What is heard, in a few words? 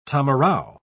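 "tamarau", British English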